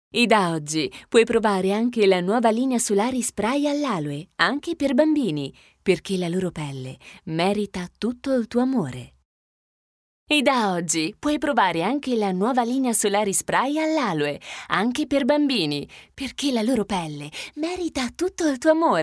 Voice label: italian voiceover locutora italiana; Italian voice talent

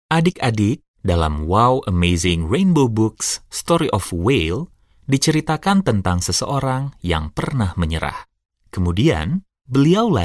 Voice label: locutor indonesio bahasa male voice talent

